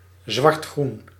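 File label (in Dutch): "zwartgroen", Dutch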